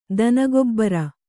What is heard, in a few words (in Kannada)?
"ದನಗೊಬ್ಬರ", Kannada